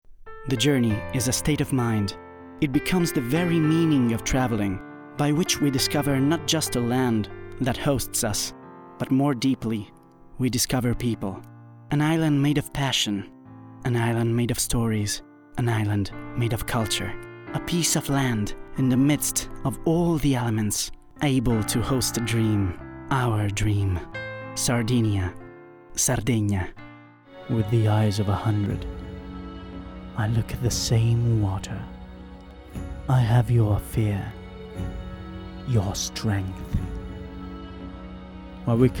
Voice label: spot english